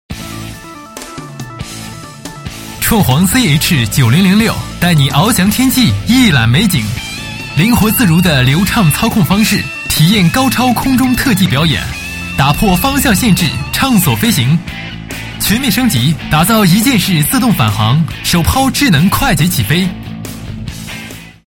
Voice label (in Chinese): 男 产品介绍; 创煌CH9006介绍